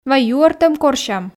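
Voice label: "вай юӧртӧм корсям", Komi, female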